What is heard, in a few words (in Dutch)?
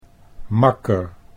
"makker", Dutch